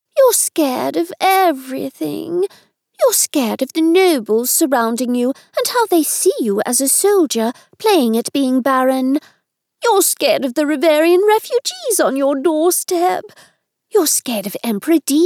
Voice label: Female Expressive